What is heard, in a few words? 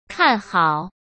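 "看好", Mandarin Chinese; chinese-flashcards; hsk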